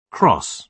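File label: "cross", British English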